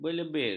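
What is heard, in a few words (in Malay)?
"belebir", Malay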